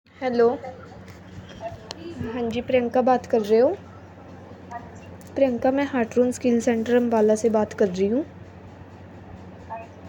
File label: call recording